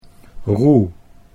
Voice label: "roe", Dutch

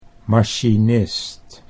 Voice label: "machinist", Dutch

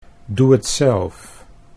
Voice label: "doe het zelf", Dutch

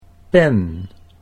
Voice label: "pen", Dutch

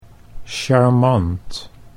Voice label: "charmant", Dutch; 'charming, likeable'

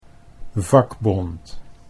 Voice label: "vakbond", Dutch

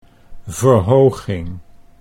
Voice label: "verhoging", Dutch

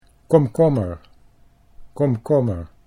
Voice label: "komkommer", Dutch

